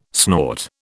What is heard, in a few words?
"snort", British